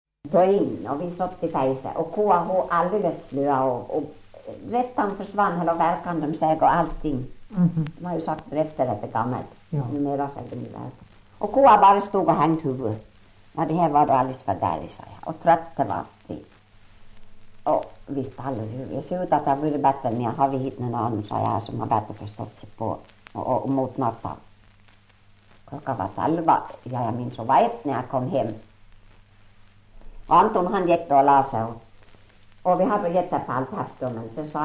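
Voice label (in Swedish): Ål Dalarna, dialekter, svenska sagor; Folkminnen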